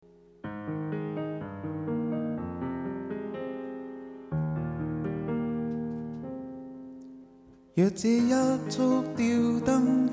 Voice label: usb card mic